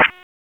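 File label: Raleigh Police Northeast District Tactical, p25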